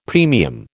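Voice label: "premium", American English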